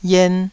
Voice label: "yien2", Taiwanese